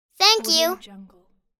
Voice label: child saying "thank you"